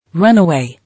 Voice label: "Runaway", English